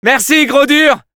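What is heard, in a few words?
thanks for the teleporter, French; Scout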